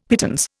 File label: "pittance", Indian; Dictionary